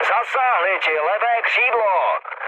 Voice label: left wing, speech cze, mood med; War Thunder